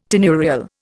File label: "tenurial", Indian